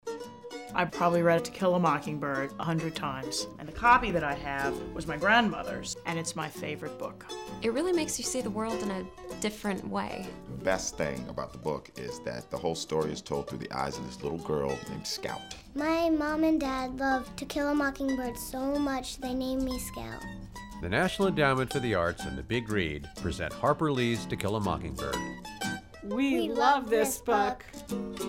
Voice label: radio